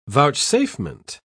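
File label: "vouchsafement", British English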